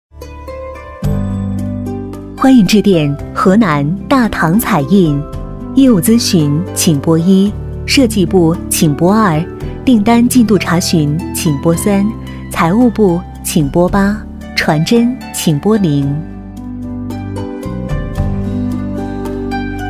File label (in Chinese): 女 彩铃, 国语女声配音, 磁性; 欢迎致电大唐彩印